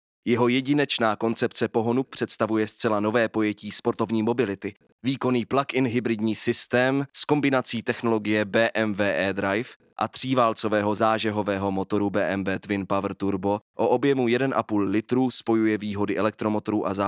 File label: czech e-learning